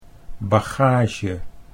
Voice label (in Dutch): "bagage", Dutch